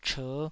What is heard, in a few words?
"cher5", taiwanese